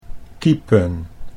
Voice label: "kiepen", Dutch

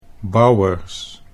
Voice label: "bouwers", Dutch

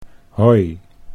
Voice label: "hoi", Dutch

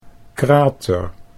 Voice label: "krater", Dutch

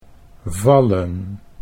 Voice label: "vallen", Dutch

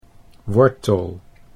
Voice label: "wortel", Dutch

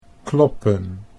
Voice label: "kloppen", Dutch

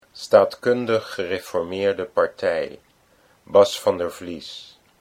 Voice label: SGP, Dutch

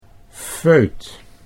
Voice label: "feut", Dutch

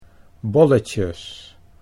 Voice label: "bolletjes", Dutch

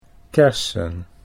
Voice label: "kersen", Dutch

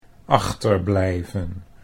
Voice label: "achterblijven", Dutch

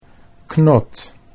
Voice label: "knot", Dutch

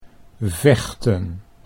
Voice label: "vechten", Dutch

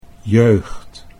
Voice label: "jeugd", Dutch

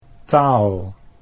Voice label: "taal", Dutch

